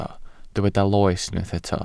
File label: "loes", Welsh